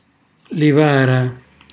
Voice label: "livara", Calabrese; cucina calabrese; Glossario